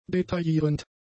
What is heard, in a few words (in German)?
"detaillierend", german; adjectives